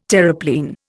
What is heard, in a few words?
"Terreplein", Indian